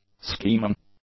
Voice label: "scheming", English; adjectives

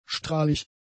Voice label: "strahlig", German; adjectives

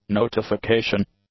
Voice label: "notification", English; nouns

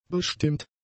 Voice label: "bestimmt", German; adjectives

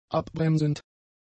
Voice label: "abbremsend", German; adjectives

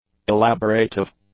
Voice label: "elaborative", English; adjectives

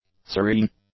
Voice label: "serene", English; adjectives

